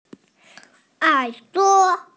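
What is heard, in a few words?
"ear", Mandarin Chinese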